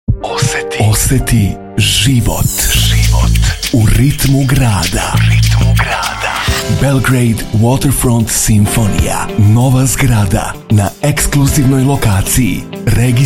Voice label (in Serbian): radio reklama; bw simfonija